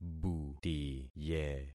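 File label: "butiye", concatenated syllables; Affixing experiment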